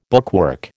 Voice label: "bookwork", American